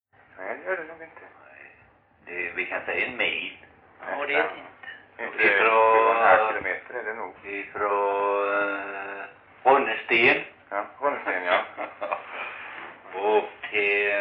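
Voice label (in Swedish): Rolfstorp Halland, Svenska sagor dialekter; Folkminnen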